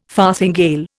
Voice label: "farthingale", Indian